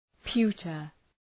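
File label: "pewter", English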